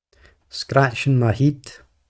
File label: Scratching my head, glaswegian